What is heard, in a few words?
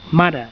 mother, Catalan